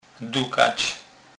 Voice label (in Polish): "dukac", kurpie; slownik